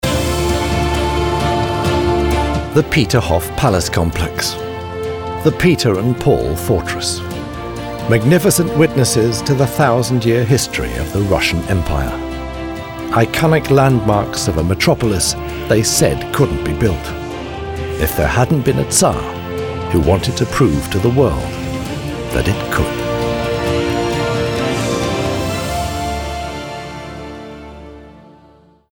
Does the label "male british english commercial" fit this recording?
Yes